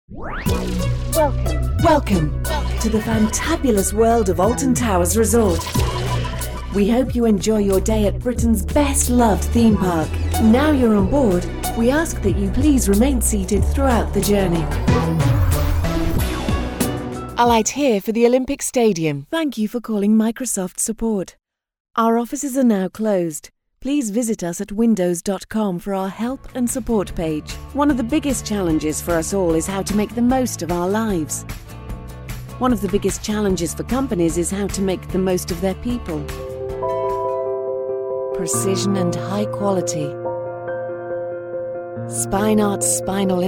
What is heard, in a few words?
Corporate